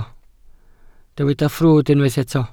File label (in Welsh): "ffrwd" Welsh